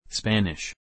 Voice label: Spanish